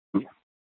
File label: Dispatch, scanner